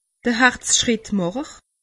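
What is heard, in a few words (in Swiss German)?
"harzschrittmacher", Alsatian; medecine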